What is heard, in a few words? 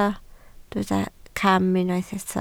"cam", Welsh